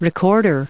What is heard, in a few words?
"recorders", us